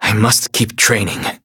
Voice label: defeat, en; Cookie Run